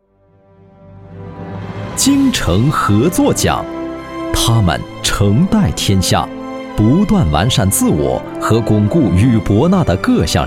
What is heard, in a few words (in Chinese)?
专题 颁奖, 男国; 精诚合作奖